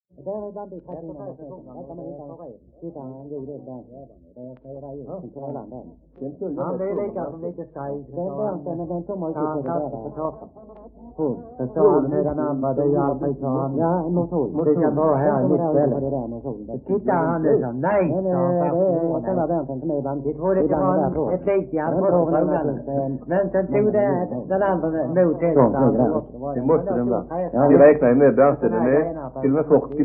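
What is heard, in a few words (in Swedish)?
Degeberga Skåne, svenska sagor dialekter; Folkminnen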